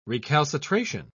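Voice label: "recalcitration", British English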